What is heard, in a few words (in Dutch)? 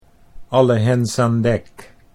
"alle hens aan dek", Dutch